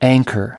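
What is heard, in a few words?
"anchor", American English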